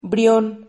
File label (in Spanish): "brion", Silabas